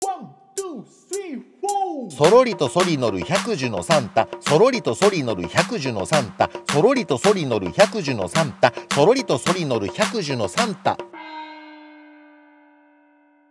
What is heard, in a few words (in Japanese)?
早口言葉リズム（中級編）